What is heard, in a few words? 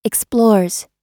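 "explores", American English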